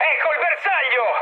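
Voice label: Italian near waypoint mood high, speech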